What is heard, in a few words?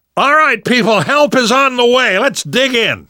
radio used generic, namvet, survivor voice; Left 4 Dead 2; left4dead2 dlc3